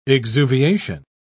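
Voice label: "exuviation", British English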